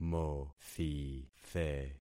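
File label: "mothithe", concatenated syllables; Affixing experiment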